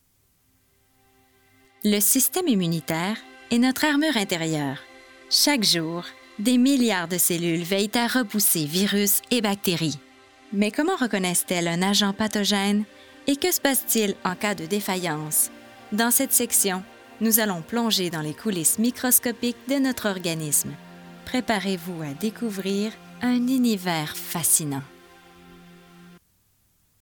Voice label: e-learning; Voix off professionnelle